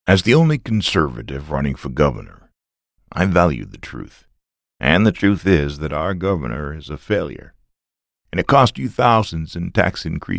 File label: Political Spot, voiceovers